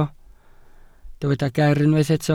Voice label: "ger" Welsh

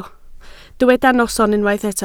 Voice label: "noson" Welsh

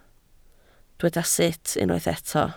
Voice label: "sut", Welsh

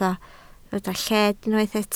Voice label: "lled", Welsh

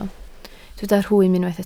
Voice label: "rhwym" Welsh

